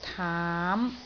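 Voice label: "taam5", Cantonese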